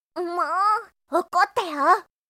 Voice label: anime, Irritated & Angry Phrases from Anime Girls